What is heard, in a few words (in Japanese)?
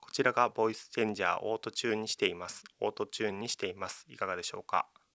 ボイスチャンジャー AUTO